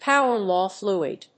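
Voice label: "Power-law fluid", English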